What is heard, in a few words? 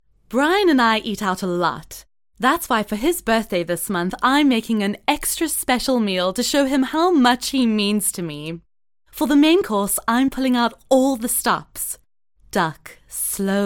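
F, English South Africa, female, commercial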